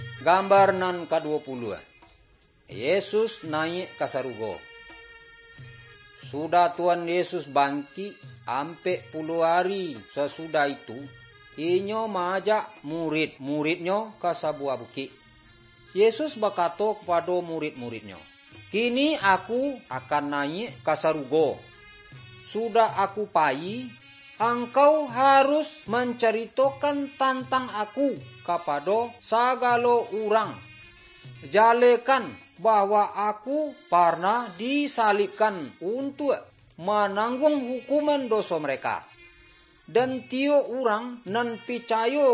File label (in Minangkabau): good news minangkabau